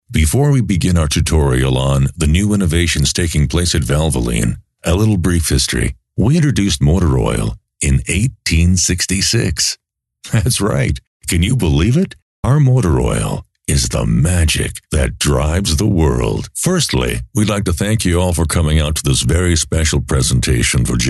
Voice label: english american e-learning